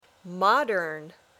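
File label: "modern", English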